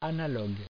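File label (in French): "analogue" French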